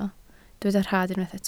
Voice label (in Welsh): "rhad", Welsh